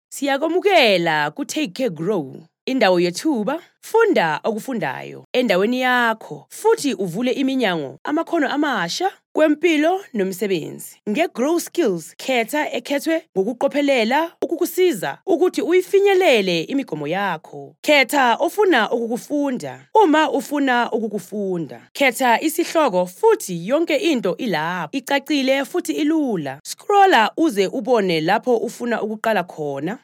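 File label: Zulu, female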